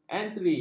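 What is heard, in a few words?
"entri", Malay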